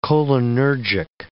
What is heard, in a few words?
"cholinergic", English